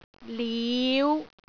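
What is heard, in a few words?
"liu5", Cantonese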